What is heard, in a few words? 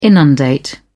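"inundate", English, uk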